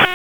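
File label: Police Northeast District Disp, radio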